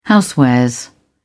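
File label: "housewares", British English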